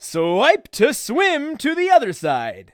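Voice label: announcer swimming tutorial; Nick Summer Sports Stars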